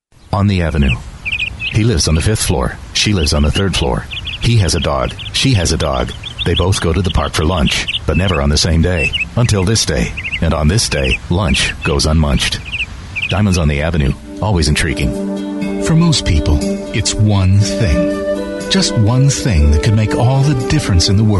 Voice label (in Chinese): 外籍男, Commercial